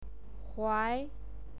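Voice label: "huai", Mandarin Chinese pinyin, second tone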